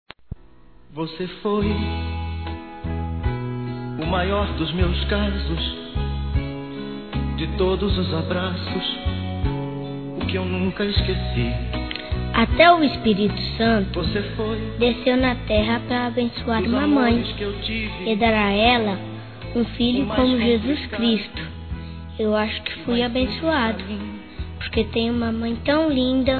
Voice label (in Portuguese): Aniversário Mãe Infantil Masculino; Telemensagens 2017; Aniversários